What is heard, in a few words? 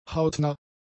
"hautnah", German; adjectives